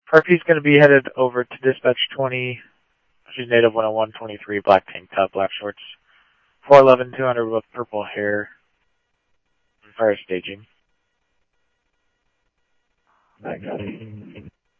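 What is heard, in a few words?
Mesa Police Department, scanner audio trunk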